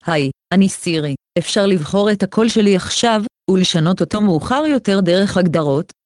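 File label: Hebrew, Israel; iOS Sounds; carmit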